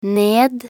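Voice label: "ned", Norwegian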